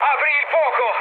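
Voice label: Italian attack position mood med, speech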